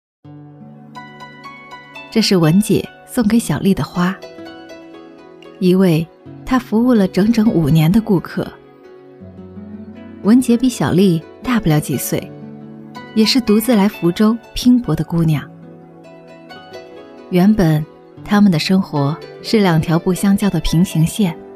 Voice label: 女 解说 美容师

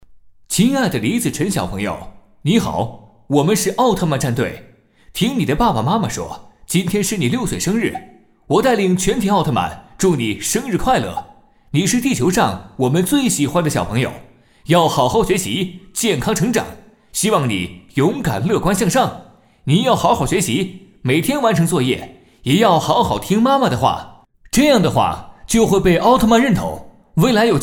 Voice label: 男 广播 祝福; 奥特曼

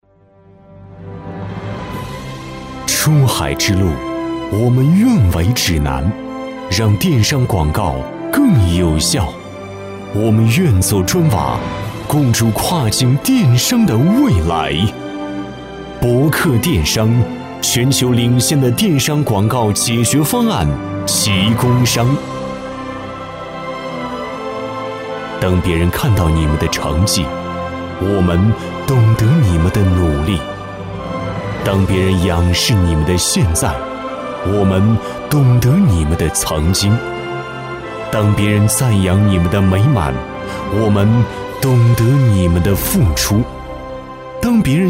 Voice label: 男 宣传 大气企业

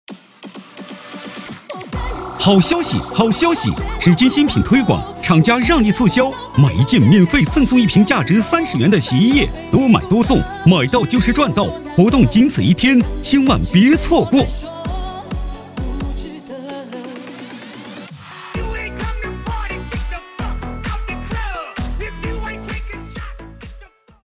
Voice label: 男 广告 叫卖促销

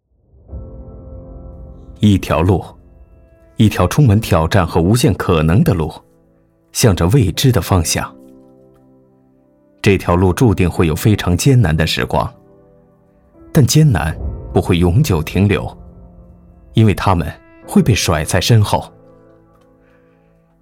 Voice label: 男 走心

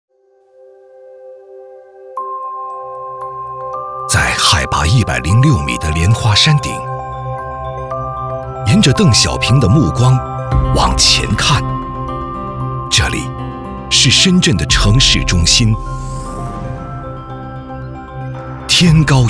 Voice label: 男 纪录片 质感纪录片; 航拍中国质感纪录片; 深圳CBD

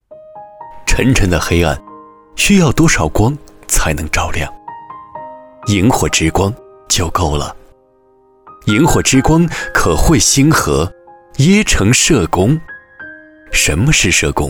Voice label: 男 独白 情感类

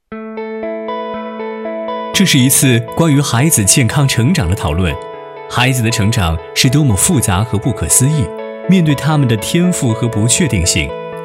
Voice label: 男, 专题, 幼教宣传; 重庆辰星

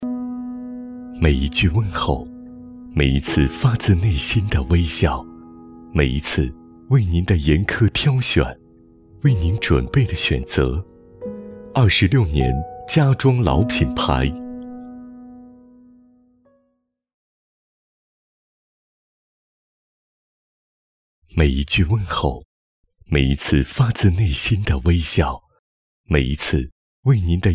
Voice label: 男 衡阳 装饰; 金空间

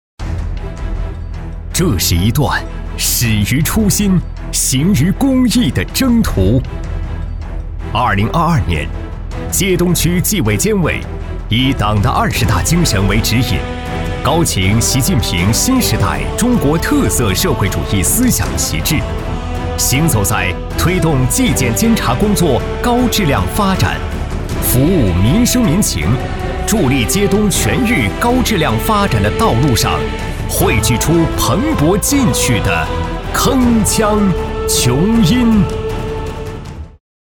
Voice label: 男 党政; 揭阳市揭东区纪委监委

